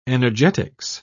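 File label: "energetics", British English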